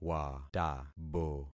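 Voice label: "watabo", concatenated syllables; Affixing experiment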